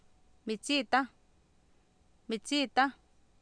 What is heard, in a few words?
"mitsIitAh", Oapan, female; Oapan minimal pairs 2000